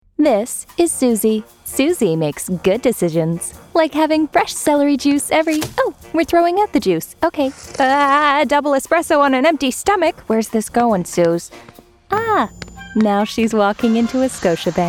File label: Commercial